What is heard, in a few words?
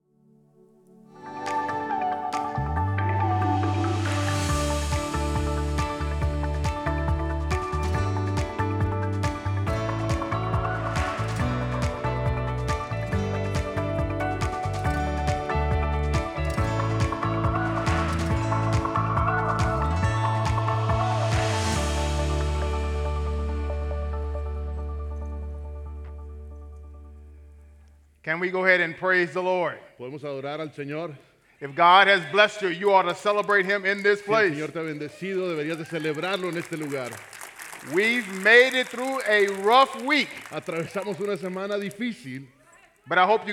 SERMON SPA AUDIO